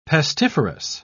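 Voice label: "pestiferous", British English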